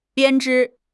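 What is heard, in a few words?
"编织", Chinese; HSK6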